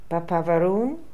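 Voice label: "Papaveroon", pronunciations; Fourth Way; Beelzebub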